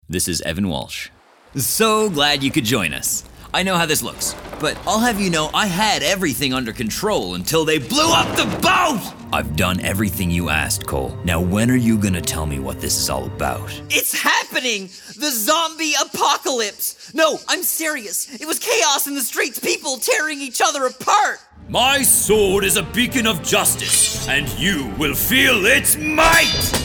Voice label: Character Reel